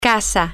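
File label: Spanish, female; house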